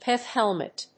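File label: "Pith helmet", English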